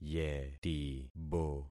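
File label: "yetibo", concatenated syllables; Affixing experiment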